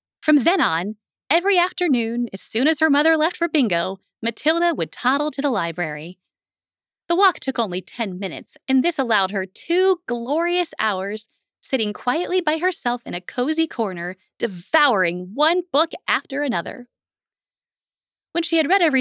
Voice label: Childrens